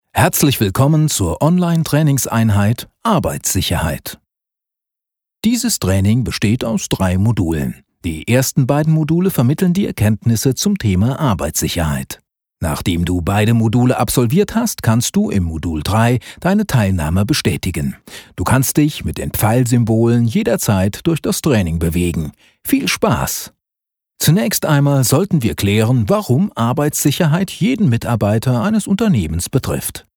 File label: GERMAN Male